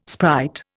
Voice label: "sprite", Indian